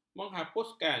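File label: "menghapuskan", Malay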